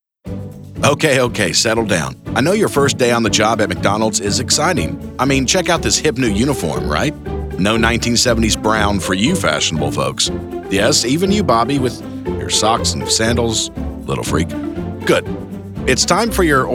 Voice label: E-learning